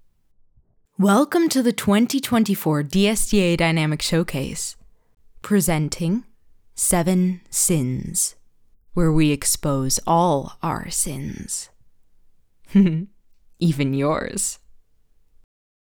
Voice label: VO, Acting and Voice Over; 7 sins